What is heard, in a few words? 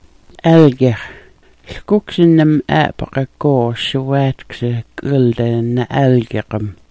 "algyax", smalgyax